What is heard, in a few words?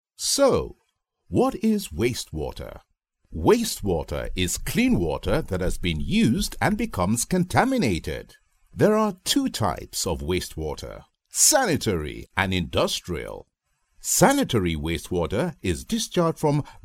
male african voice over narrative